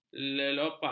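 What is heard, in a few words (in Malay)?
"lelopak", Malay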